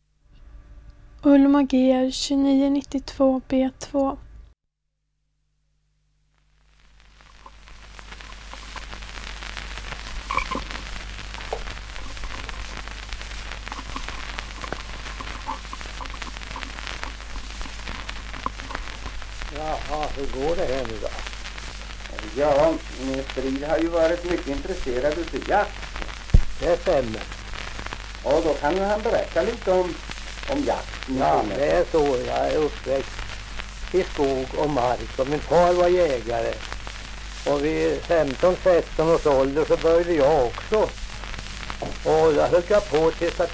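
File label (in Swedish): Gryt Östergötland, dialekter; Folkminnen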